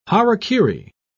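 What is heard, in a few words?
"harakiri", British English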